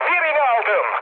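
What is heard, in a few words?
player killed enemy, mood high, Turkish; speech; War Thunder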